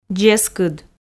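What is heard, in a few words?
"дзескыд", Komi, female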